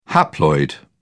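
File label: "haploid", British English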